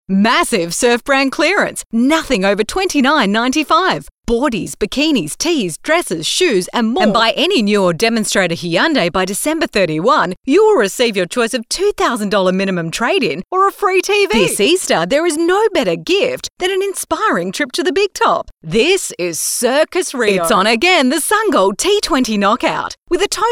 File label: Hard Sell